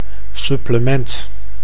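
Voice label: "supplement", Dutch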